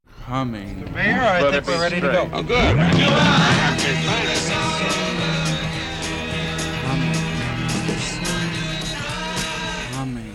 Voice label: Radio Commercials; 1968